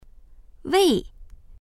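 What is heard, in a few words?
"wei4", Chinese; 为; …のために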